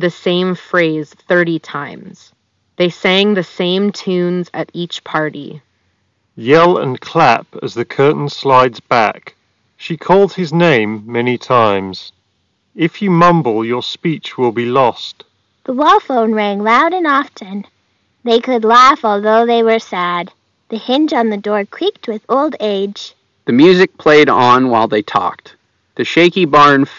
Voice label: Sennheiser Sport True Wireless Ideal microphone sample